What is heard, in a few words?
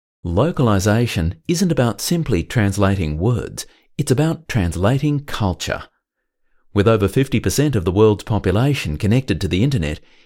english australia male